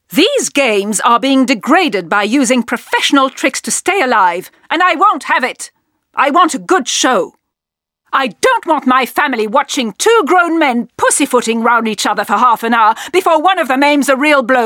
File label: ENG CHA